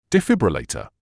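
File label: "defibrillator", British English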